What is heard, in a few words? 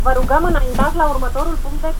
drive in voice romanian